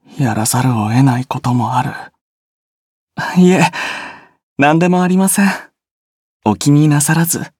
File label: victory, jp